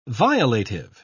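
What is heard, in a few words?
"violative", English, British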